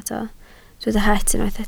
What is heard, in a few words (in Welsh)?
"het" Welsh